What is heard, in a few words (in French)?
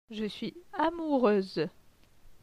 "je suis amoureuse", francais